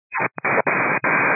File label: 122.925 MHz; scanner radio